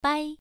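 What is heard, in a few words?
"bai" Mandarin, first tone, pronunciation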